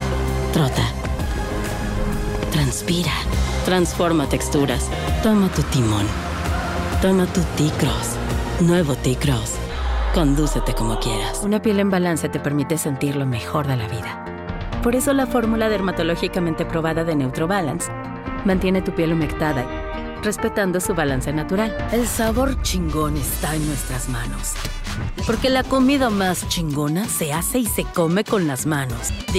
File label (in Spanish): Comercial